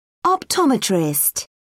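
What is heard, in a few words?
"optometrist", British English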